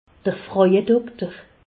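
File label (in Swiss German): "frojadokter", Alsace, Haut Rhin; gynécologue; medecine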